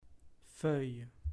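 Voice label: "feuille", French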